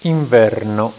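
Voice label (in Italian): "inverno", Italian; tijden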